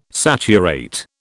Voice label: "saturate", British

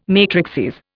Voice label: "matrices", Indian

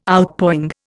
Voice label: "Outpouring", Indian

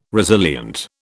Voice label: "resilient", British

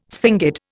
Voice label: "sphingid", Indian

